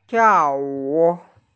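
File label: "tiao4wu3", Mandarin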